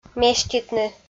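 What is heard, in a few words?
"меститны" Komi, female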